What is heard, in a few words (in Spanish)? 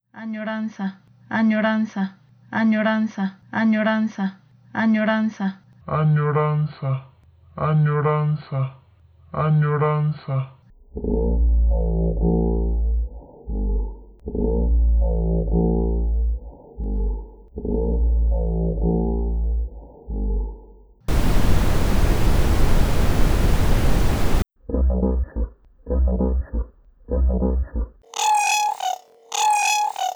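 Poema sonoro, poesía experimental sonora; añoranza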